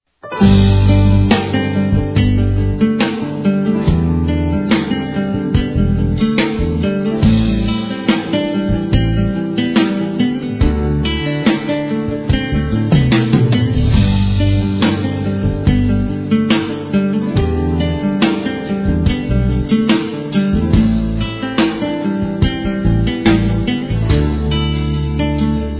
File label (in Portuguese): NEUTRO F, Telemensagens Aniversários; ANIV. Evangelico; Fem